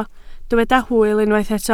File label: "hwyl", Welsh